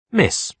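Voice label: "miss", British English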